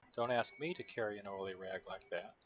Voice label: LogMMSE destroyer engine SNR10

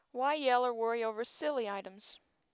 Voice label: timit clean

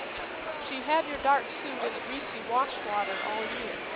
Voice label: noisy Exhibition 5dB; dropout nat gv spectrum 5dB

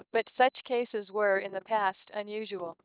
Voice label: DNN Machinegun SNR5

